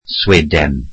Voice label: welsh Swedish